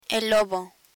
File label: "lobo", Spanish